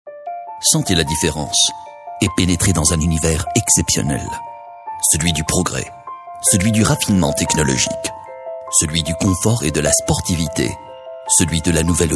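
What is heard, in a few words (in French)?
Tunisian French, male, commercial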